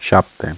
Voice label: "sapte", Romanian

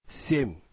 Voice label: Russian

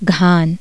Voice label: Hindi bundle

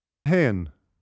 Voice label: "haon", Irish

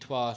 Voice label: Doric Numbers "twa", scots

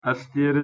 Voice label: "astire", Kurmanji

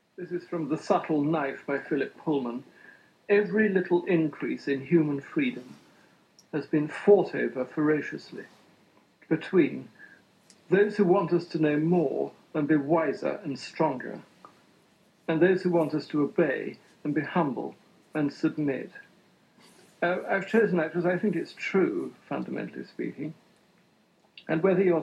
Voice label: A literary critic, general british

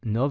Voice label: "nov", Occitan

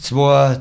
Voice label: "tsfoa", Bavarian

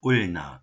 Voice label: "ulna" Latin

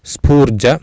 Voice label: "sphuurja", Sanskrit

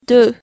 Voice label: "deux" French

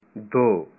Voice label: "two" Punjabi

